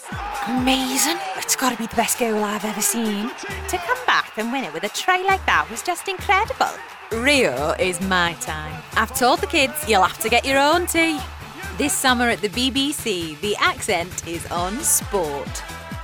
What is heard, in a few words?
Commercial